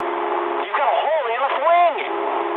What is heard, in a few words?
eng left wing mood med, speech eng; War Thunder